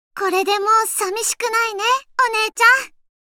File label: Voice victory